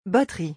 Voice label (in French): "batterie", French; vocabulary; musical instruments